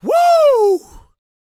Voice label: SHOUTS, Gospel, PREACHER; ILIO; Vocal Planet